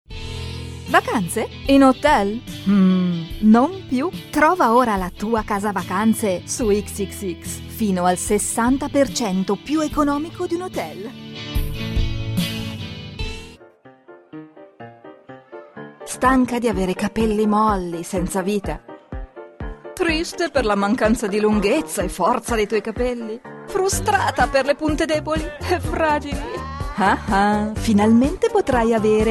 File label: Commercials Mix